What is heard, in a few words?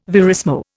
"verismo", Indian